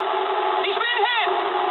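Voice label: English aircraft destroyed mood med; speech; War Thunder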